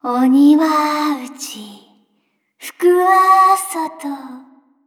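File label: victory, Japanese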